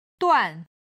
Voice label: "duan4", Chinese